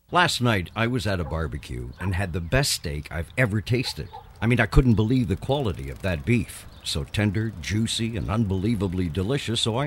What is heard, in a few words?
Red Grill Spot